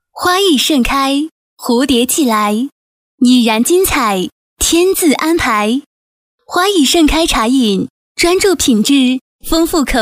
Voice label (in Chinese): 女 广告; 花亦盛开茶饮